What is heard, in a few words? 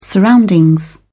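"surroundings", British English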